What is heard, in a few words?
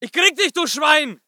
voice ger infantry; Red Orchestra 41-45